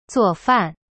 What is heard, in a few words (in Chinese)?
"做饭", zuo fan, Chinese; cyberchinese